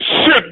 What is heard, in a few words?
"shit", irate black man; Voice; expletives